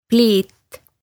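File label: "pliit", Estonian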